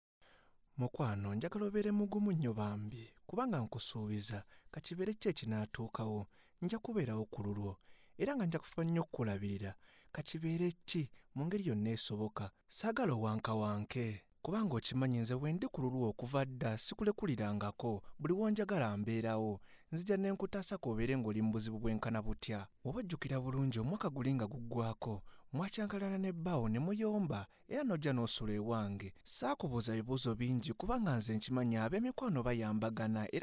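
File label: Luganda, General; GoLocalise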